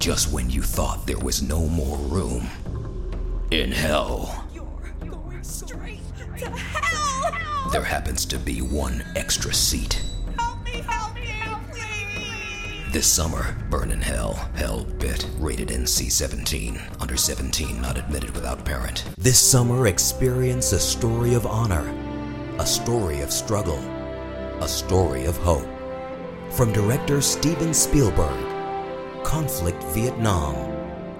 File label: english american movie trailers